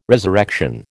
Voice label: "resurrection", American

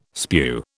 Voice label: "spew", British

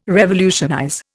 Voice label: "revolutionize", Indian

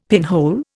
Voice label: "pinhole", Indian; Dictionary

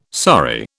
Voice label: "sorry", British

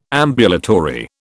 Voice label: "ambulatory", British

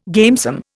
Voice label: "gamesome", Indian

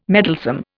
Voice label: "meddlesome", Indian

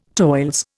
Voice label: "toils", Indian

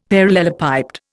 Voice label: "parallelepiped", Indian; Dictionary

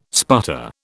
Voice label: "sputter", British

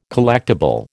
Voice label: "collectible", American

